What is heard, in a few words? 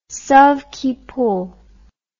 "sauve qui peut", English